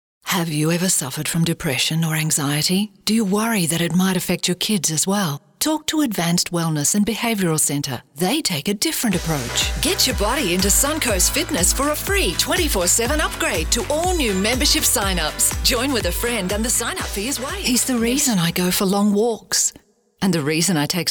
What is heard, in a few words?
english australian commercial